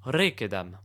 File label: male retreat, latin